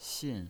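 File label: "xin", pinyin voice, fourth tone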